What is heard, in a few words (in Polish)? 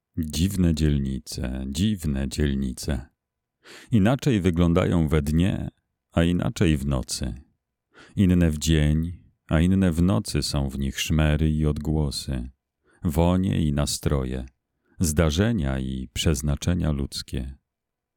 narracja